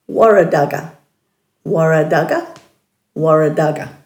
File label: "waradaga-", Dhurga; dulagaal